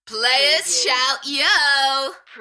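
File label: UK female, Enthusiastic, DJ vocals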